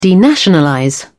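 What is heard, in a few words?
"denationalize", British English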